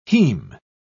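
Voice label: "heme", British English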